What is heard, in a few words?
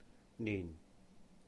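"níhn", Cantonese; year; 年; nin4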